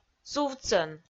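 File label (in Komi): "сувтсӧн", Komi, female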